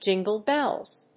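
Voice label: "jingle bells", English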